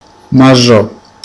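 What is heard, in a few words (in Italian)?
"marrone", Sicilian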